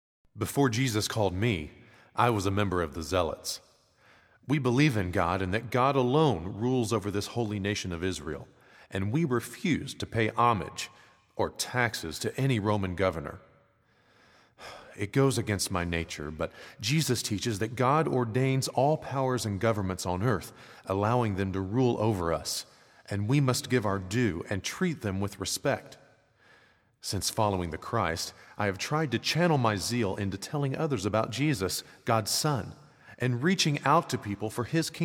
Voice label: Monologue; Simon the Zealot; living last supper